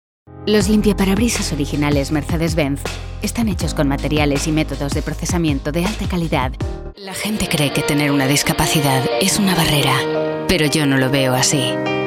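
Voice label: Spanish, female, CORPORATE ELEARNING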